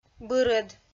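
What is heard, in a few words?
"бырӧд", Komi-Zyrian, female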